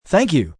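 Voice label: "Thank You" male; Etiquette Sound Effects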